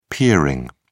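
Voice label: "peering", English, British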